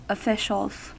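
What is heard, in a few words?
"officials", American English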